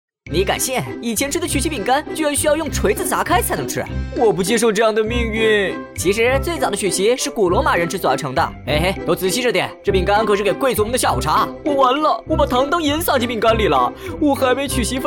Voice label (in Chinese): 搞怪短视频配音